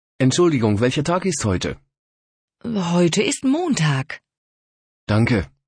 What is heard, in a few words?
dialog